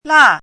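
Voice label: "là", Chinese; 拉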